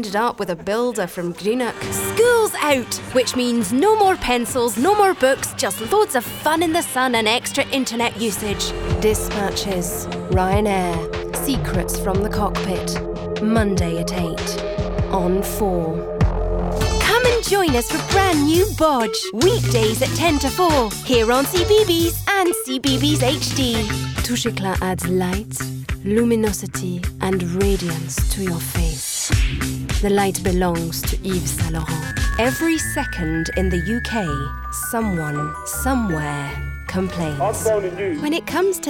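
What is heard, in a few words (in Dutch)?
franse voice-over stemacteur, French voice actor; KHS ENG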